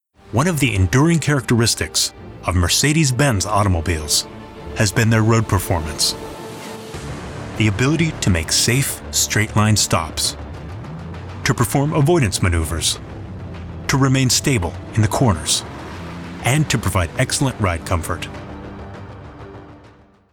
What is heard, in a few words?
men corporate; Conversational and relatable. Clear, upbeat, and calming.